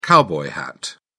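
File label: "cowboy hat", British English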